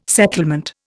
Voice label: "settlement", Indian